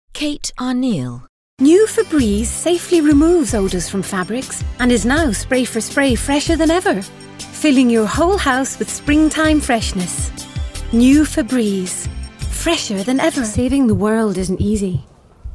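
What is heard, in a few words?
commercials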